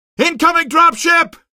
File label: incoming dropship, male; Source Engine; video game; coast; barn